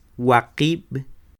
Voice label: "Waqib'", Quiché; seis; six; INALI; UTexas; Números